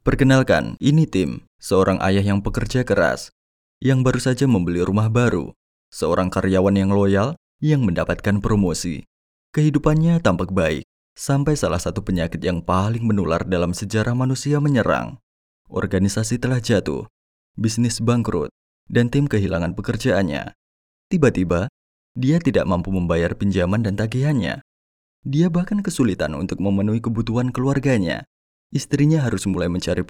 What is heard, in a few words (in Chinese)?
印尼语男, 轻快风格